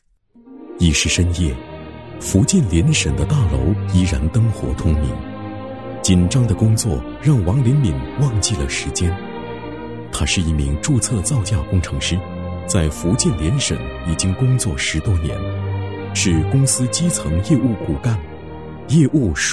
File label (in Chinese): 男 人物宣传 自然讲述; 男70-大气主流 自然诉说